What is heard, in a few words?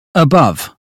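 "above", British phonetics; 英语音标发音（剑桥版）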